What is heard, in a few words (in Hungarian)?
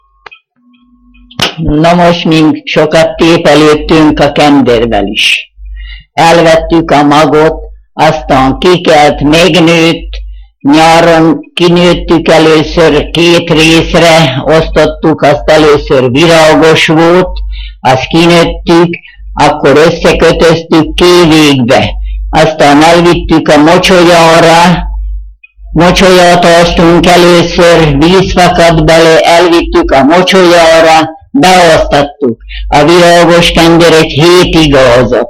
nyelvjaras gyujtesek